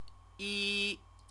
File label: "yi", Chinese; clothes; noun